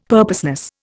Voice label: "purposeless", Indian; Dictionary